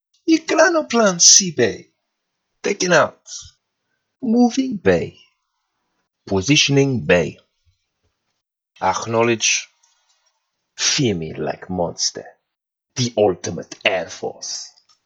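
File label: ekranoplan voice act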